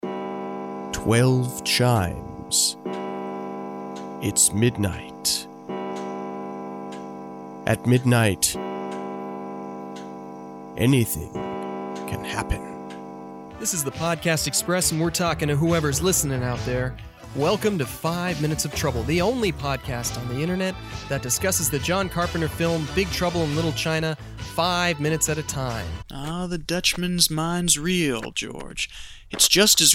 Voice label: VO Podcasts